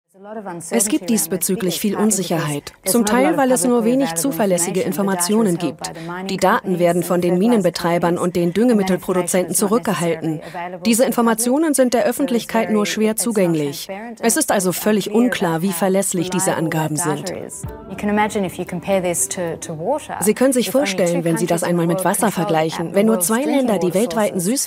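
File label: voiceover doku; arte; die phosphor krise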